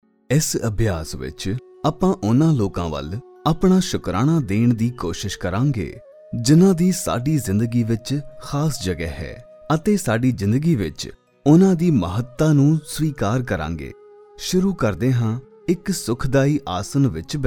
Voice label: punjabi